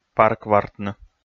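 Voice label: "парквартны", Komi, male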